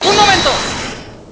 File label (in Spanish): "Un Momento", Spanish